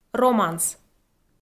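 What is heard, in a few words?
"романс", Komi, female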